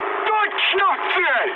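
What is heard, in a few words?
Russian bomb success mood med, speech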